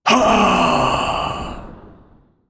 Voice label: Male Elemental Death

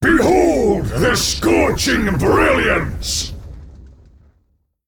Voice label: Male Elemental

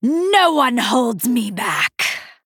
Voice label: Female Night Elf TRIGGER Consume Magic

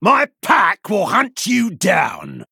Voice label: Male Human Play

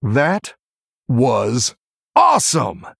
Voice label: Male Human After Triple

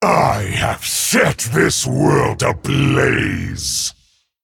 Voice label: Male Dragon Emote; Winstreak

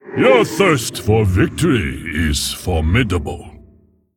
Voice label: Male Mogu Well Played

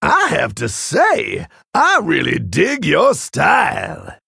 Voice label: Male Tauren

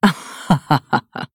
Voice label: Female Human

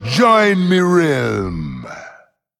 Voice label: Male Troll Attack

